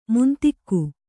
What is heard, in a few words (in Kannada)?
"ಮಂಥಿಕ", Kannada